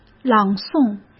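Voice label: "朗诵", Mandarin Chinese; hsk; chinese-flashcards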